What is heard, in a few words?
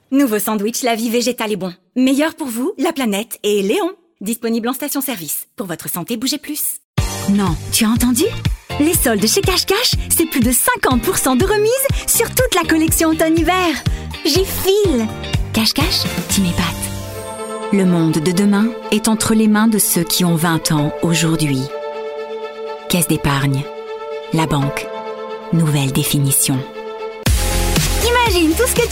French